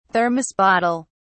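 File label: "thermos bottle", British English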